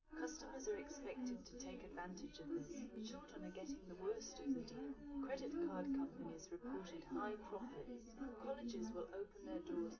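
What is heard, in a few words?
female4 live recording 250ms 1m, underdetermined; SiSEC11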